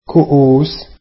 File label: arabic cups